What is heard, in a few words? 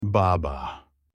father in turkish